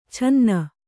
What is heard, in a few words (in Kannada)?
"ಛನ್ನ", Kannada